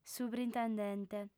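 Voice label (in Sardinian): "subrintendente", female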